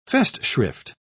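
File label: "festschrift", British English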